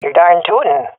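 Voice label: agree, swat female; vo; agents; Source Model Packs; Counter Strike Model Pack; CS2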